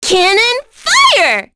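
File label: Vox Skill, voices heroes English; Miruru; kings raid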